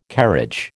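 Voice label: "carriage", American English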